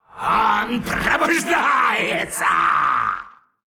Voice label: Male Qiraji Attack; Hearthstone; датамайн обновления 5.0